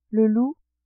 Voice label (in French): "loup", French